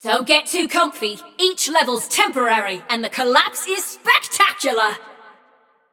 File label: Scrap Run General Reminder, Announcer; Flux